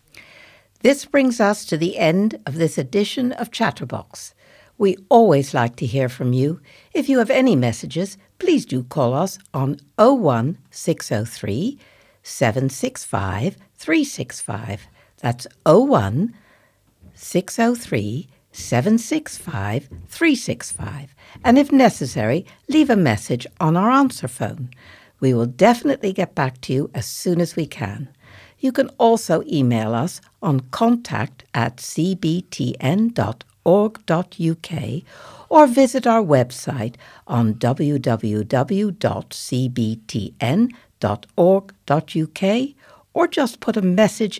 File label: Closing Announcement